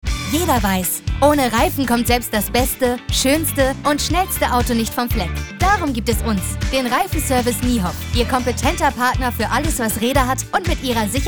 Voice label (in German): Werbespot